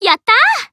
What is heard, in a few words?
Emo Glad